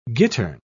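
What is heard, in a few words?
"gittern", English, British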